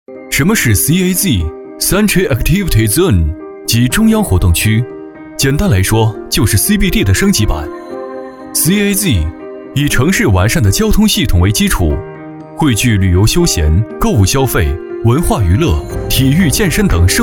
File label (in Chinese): 男 宣传 地产 年轻; 城发投·云和赋